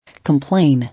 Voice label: "Complain", English